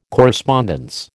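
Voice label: "correspondence", American English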